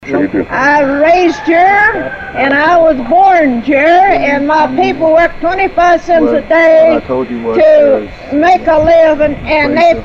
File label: radio ad